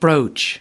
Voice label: "broach", us

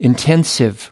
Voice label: "intensive", us

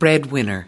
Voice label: "breadwinner", us

